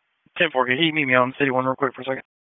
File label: Police Northeast District Disp, p25; Raleigh